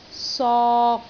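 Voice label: "sok3", Cantonese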